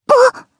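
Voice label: Vox Sur Japanese; Lewsia; Kingsraid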